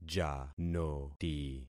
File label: "janoti", concat syllables; Affixing experiment